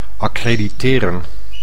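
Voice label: "accrediteren", Dutch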